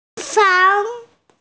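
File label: "fang2", Mandarin Chinese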